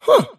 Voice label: happy; davion; dota; dragon knight

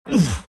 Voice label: tusk pain

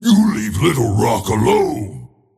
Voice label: anger; dota; tiny

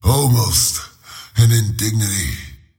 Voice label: lasthit pain; slyrak; dota

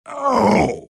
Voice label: pain; tiny

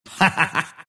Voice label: monkey laugh; dota; monkey king

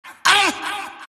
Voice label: monkey crown pain; dota; monkey king

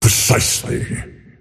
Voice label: lasthit pain; dota; earthshaker; arcana

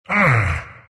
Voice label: grunts; dota; elder titan

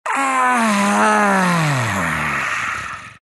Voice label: happy; dota; meepo

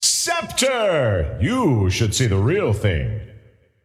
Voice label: scepter announce in game; dota

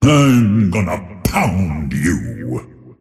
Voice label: attack pain; dota; earthshaker; arcana

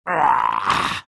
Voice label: lion pain; dota

